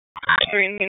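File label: EMS Dispatch; wake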